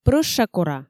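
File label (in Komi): прӧща кора, Komi, female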